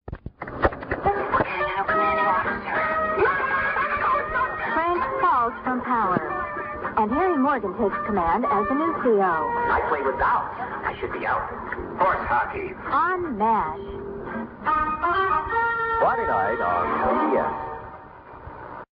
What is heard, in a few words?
promo; change command